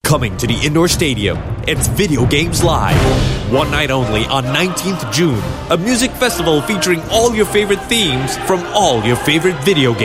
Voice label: Asian English; Video Games Live

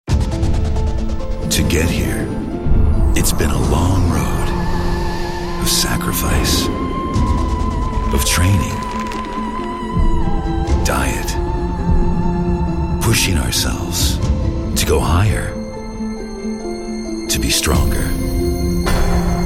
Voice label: US English; Astro Olympic Sponsor; en-mid-atlantic

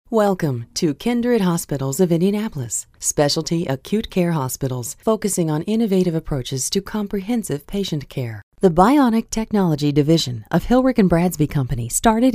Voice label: US English Narration

